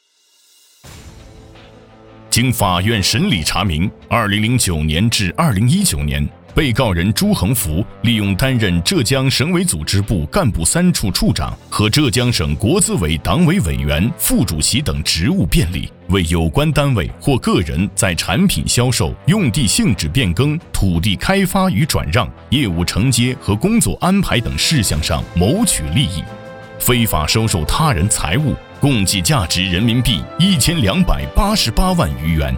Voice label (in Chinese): 男 专题 警示反腐片